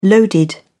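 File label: "loaded", British English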